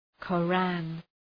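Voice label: "Koran", English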